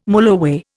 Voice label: "mulloway", Indian